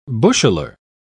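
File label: "busheler", British English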